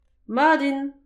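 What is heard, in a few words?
"mat int", Breton; petit precis de prononciation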